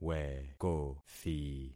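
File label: "wekothi", concatenated syllables; Affixing experiment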